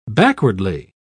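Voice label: "backwardly", British English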